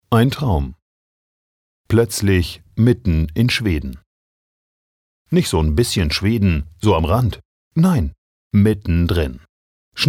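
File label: acting, dynamic; Schauspiel; Dynamisch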